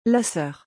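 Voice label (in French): "La soeur", French; vocabulaire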